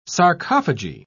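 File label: "sarcophagy", British English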